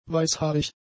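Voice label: "weißhaarig", German; adjectives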